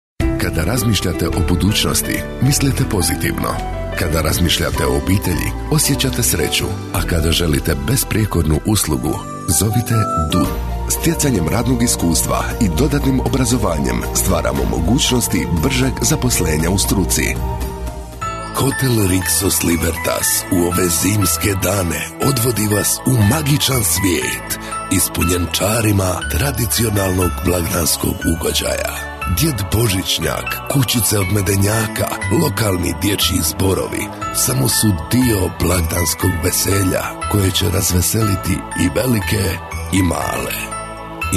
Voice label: Croatian M TV comm; voice over

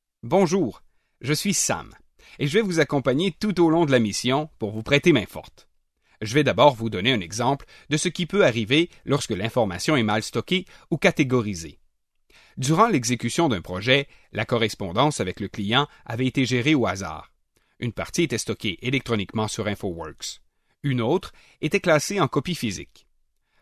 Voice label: French CAN M e-learning, french canadian; voice over

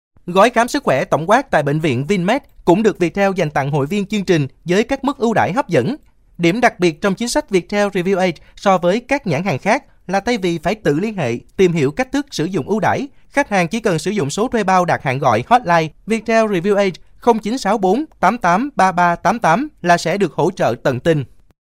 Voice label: Vietnamese M

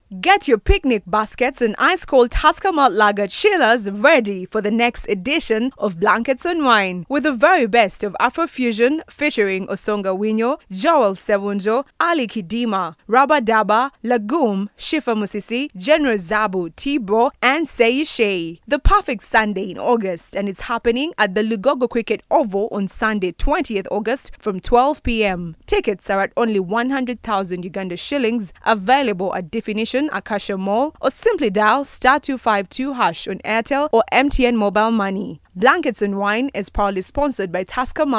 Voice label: East African English Commercial; accent of africa

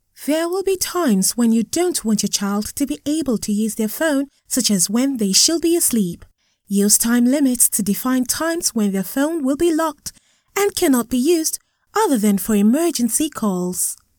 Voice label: West African English F Commercial; accent of africa

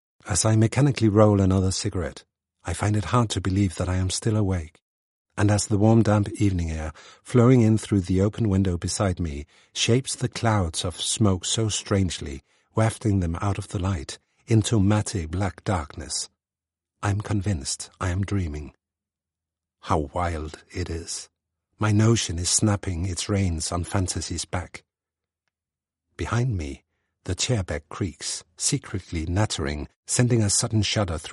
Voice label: Danish English M Narration, accent of denmark

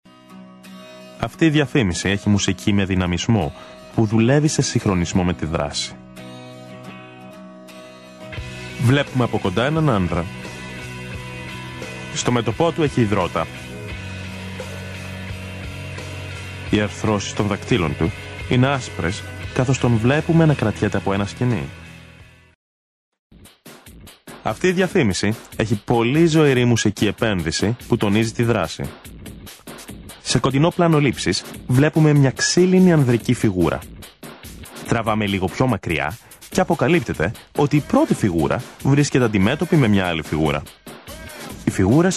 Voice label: Greek M Ad; voice over; Johnny Walker

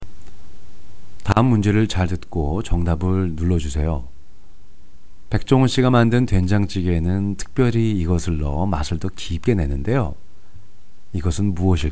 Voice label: Korean M Narration

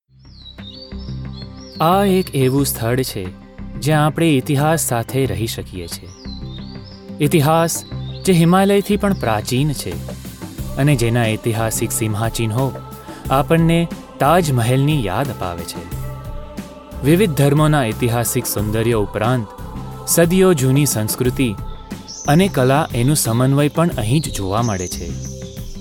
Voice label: Gujarati M Narration; voice over

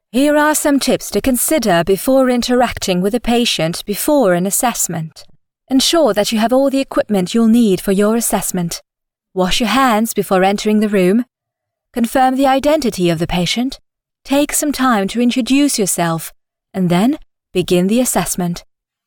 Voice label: English F Training, accent of france; voice over

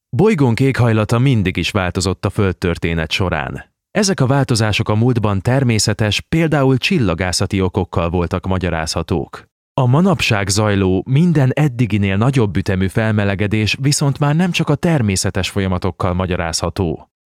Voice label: Hungarian M eLearning; voice over

